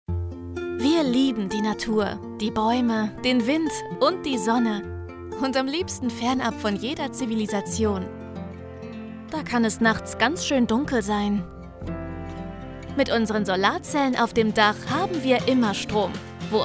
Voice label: Solarstrom, Commercial (Werbung), German

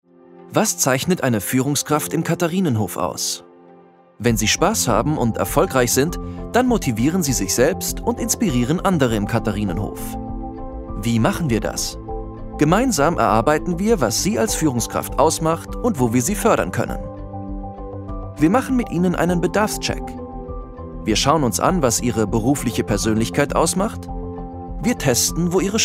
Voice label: Image de einfuehlsam motivierend; Katharinenhof

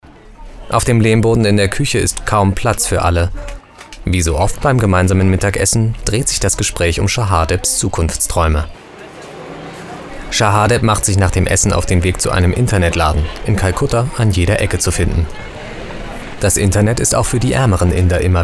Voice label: TV Doku; Bollywood; LOFT VOICES

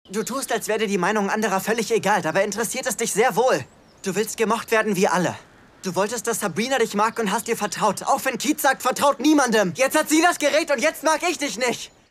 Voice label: Film, Lip-Sync (Synchron), German, man; Film - Fünf Freunde

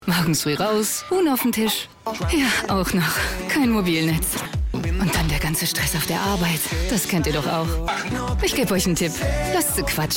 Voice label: serientrailer; zdf

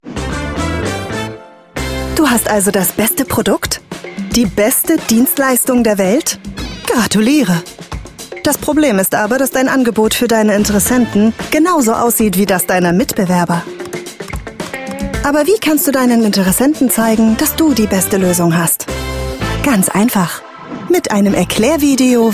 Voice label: Erklaerfilm; Rielis Media

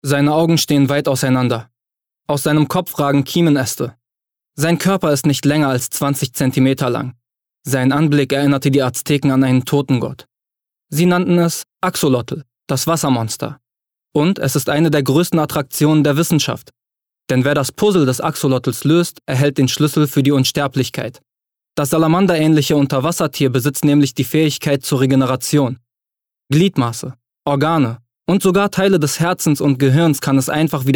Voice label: Dokumentarischer Text

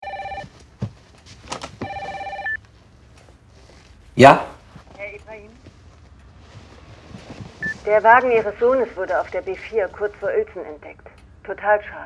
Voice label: Audio Polizistin; Bonnie Bonnie

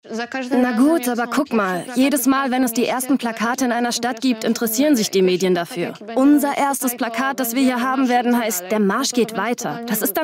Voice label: Doku; Unser Erstes Plakat; LOFT VOICES

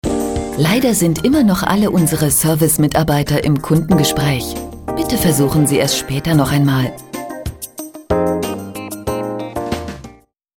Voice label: Telefonschleife; LOFT VOICES

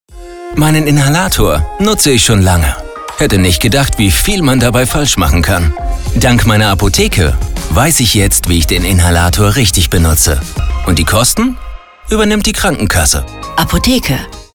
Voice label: funkspot; abda

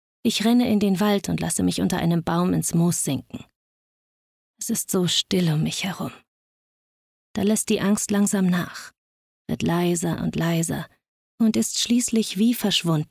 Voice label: Hoerbuchausschnitt

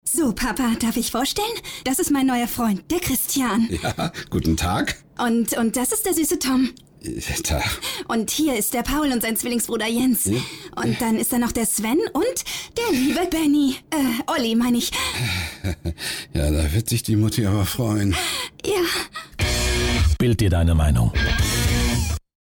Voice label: werbung jung suess begeistert naiv

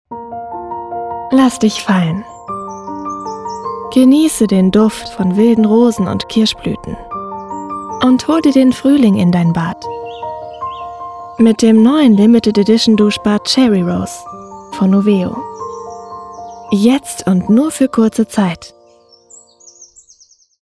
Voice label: Werbedemo Kosmetik; LOFT VOICES

